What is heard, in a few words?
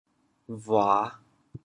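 "bhua5", Teochew, chaoyang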